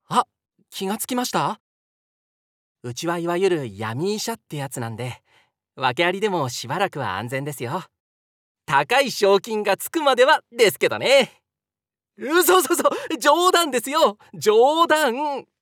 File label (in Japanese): 20代前半